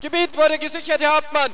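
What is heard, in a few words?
German area secure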